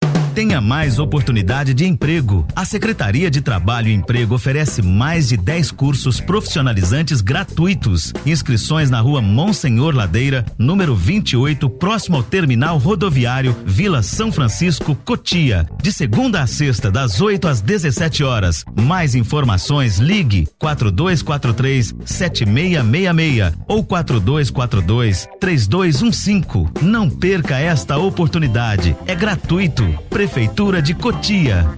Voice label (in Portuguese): locutor spot; oportunidade de emprego